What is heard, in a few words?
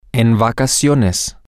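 on vacation, Spanish, male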